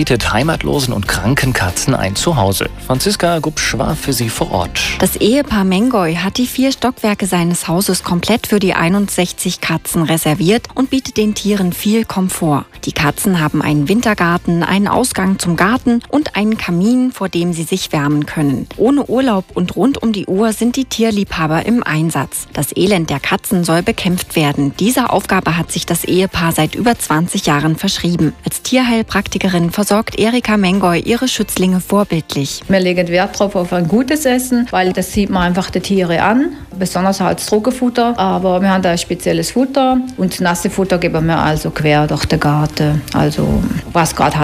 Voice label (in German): Trailer Radio Seefunk